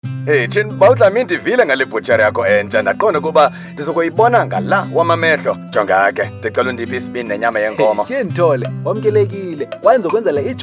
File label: Xhosa male